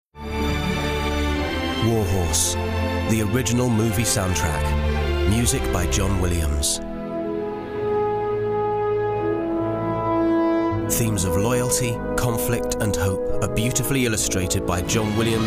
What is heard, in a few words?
Radio; War Horse